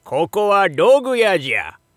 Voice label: shop oldman dougu, voice